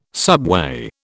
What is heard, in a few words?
"subway", British